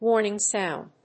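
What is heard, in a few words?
"warning sound", English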